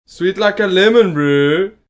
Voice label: Sweet Like A Lemon, Male; Exclamation Sound Effects